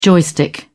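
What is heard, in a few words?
"joystick", British English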